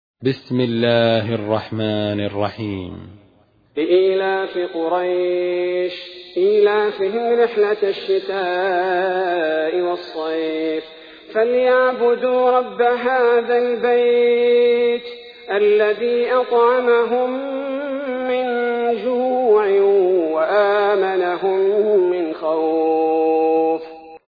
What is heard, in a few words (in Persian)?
ترتیل سوره قریش; الثبیتی